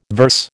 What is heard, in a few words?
"verse", American